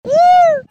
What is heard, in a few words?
"ewww"